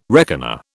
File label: "reckoner", British